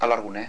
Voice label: "alargune", Basque